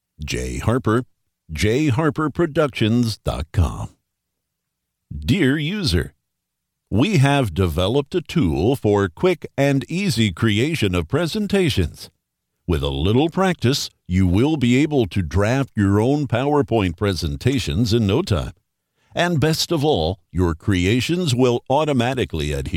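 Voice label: US English, eLearning; GoLocalise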